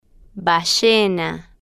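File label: "ballena", slow, Spanish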